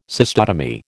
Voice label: "cystotomy", American